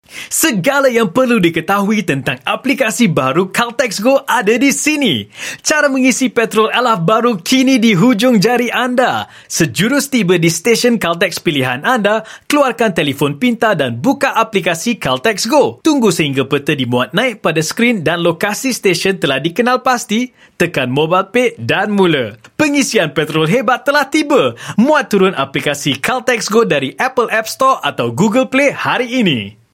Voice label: Malay Clean VO; Caltex

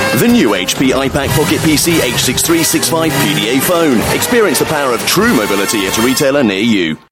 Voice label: UK English; Hewlett Packard

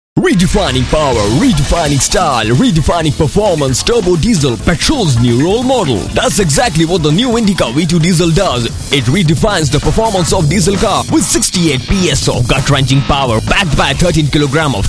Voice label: English Promo; India; Male

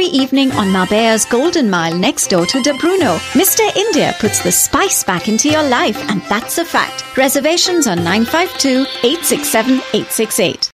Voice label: Indian English Talk Radio, Female; Europe

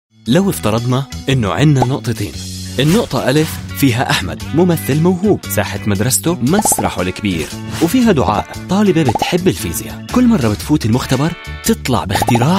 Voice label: Arabic Male Animation

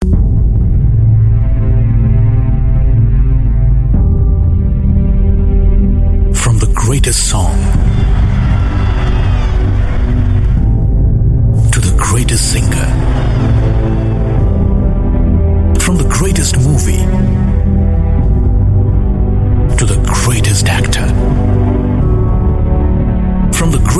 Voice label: Indian English TVC; Male

